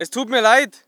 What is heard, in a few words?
voice ger infantry; Red Orchestra 41-45